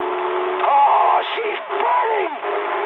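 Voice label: English on fire mood high; speech; War Thunder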